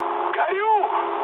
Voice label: on fire, mood med, speech ru; War Thunder